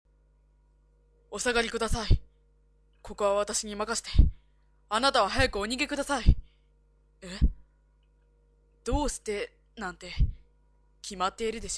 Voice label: boy